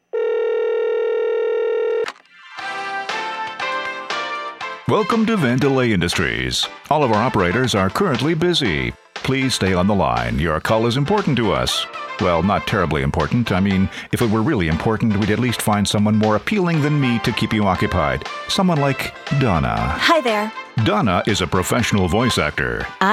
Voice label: On Air Message On Hold